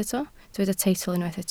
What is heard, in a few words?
"teitl", Welsh